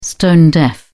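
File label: "stone-deaf", English, British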